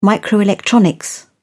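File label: "microelectronics", British English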